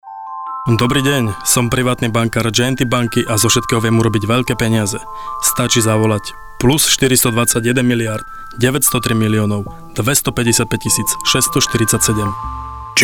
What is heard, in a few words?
radio